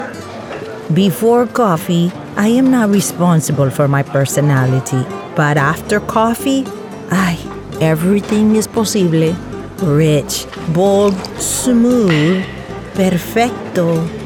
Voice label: Spanish Accent, English With Spanish Accent, Female Voice; Selma Hyack